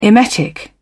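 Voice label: "emetic", British English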